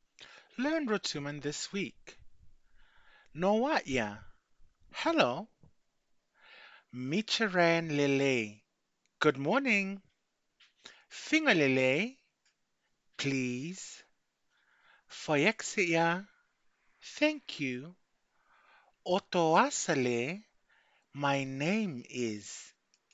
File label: Learn Rotuman this week; 2025 pacific language weeks